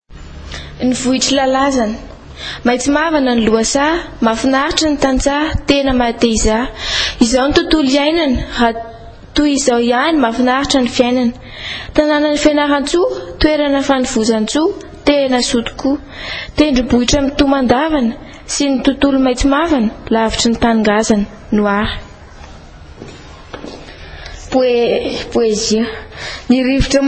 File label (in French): poemes gasy; Lalazana